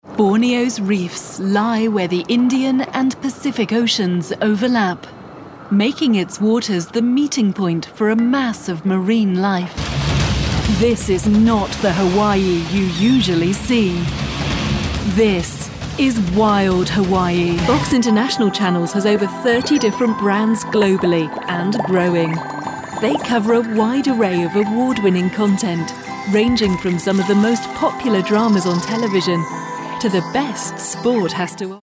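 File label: British narration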